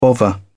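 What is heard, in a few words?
"bovver", British English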